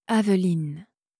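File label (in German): "Aveline", französische Mädchennamen, Aussprache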